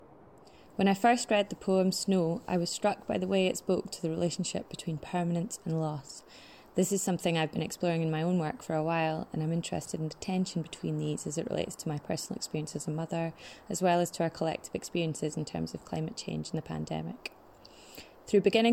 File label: audio voiceover